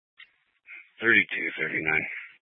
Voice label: Police Dispatch

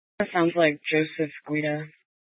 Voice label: Police Dispatch, scanner; Police 1 Dispatch

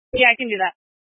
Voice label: Tactical Incidents, scanner

